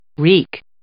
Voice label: "wreak", English